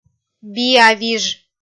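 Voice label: "биавиж", Komi, female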